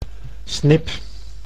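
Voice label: "snip", Dutch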